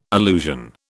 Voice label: "allusion", British